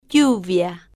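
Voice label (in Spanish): "lluvia", spanish, female, slow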